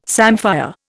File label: "samphire", Indian